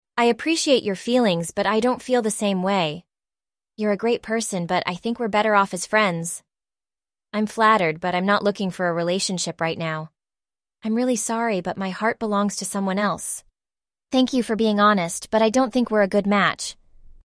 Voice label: synthesized audio